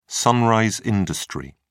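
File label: "sunrise industry", English, uk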